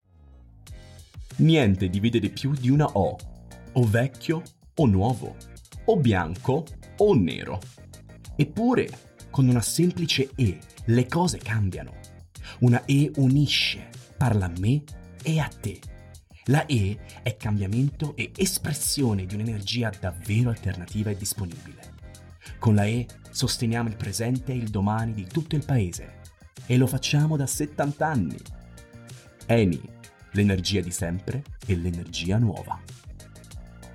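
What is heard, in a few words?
Italian, General; GoLocalise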